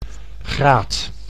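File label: "graad", Dutch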